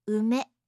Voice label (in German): "ume", japanische